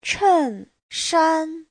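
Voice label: "chènshān", Mandarin Chinese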